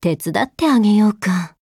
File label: Voice JP; Loreley